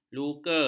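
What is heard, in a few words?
"luka", Malay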